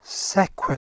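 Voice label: "sekwe", Proto-Indo-European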